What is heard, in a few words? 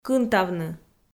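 "кынтавны", Komi, female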